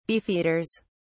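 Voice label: "beefeaters", English, British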